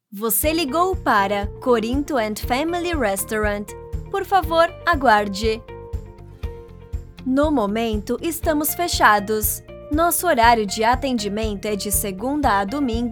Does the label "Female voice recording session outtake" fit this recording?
No